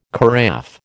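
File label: "carafe", American English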